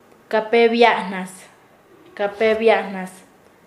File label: "maestro", Nasa Yuwe; paez